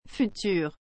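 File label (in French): "futur", French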